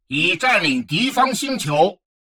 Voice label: advisor notification invasion success, chinese; Stellaris